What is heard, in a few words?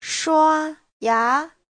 "shua1ya2", Chinese